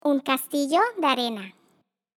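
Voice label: "sandcastle", Spanish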